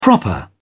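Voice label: "proper", British English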